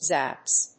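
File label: "Zaps", English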